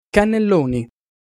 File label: "cannelloni", Italian Pronunciations